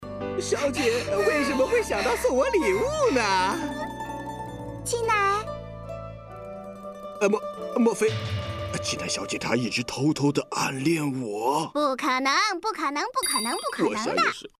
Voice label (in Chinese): 角色 搞笑动画片段